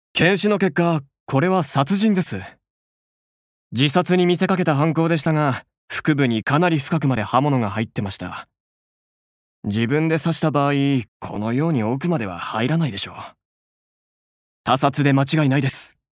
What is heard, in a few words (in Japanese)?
外画